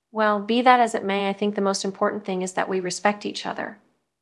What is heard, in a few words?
Voice Acting es